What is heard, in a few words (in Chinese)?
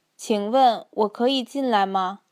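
"qingwen wo keyi jinlai ma", Mandarin Chinese; 请问，我可以进来吗？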